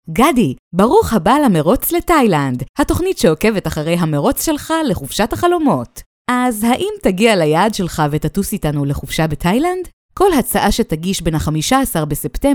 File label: hebrew tv shows